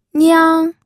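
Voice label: "niang", first tone, pinyin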